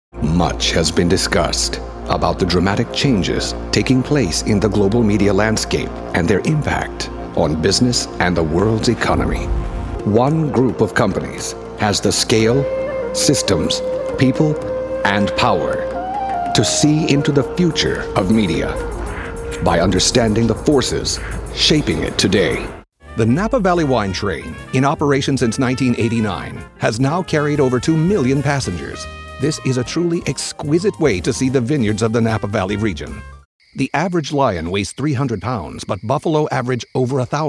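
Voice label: American, narration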